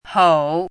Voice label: "hou3", pinyin